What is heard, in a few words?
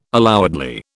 "allowedly", British